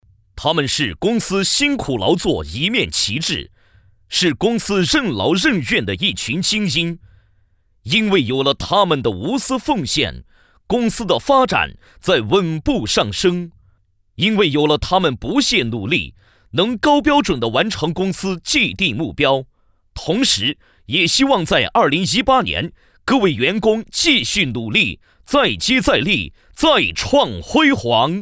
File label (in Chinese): 男 颁奖 优秀员工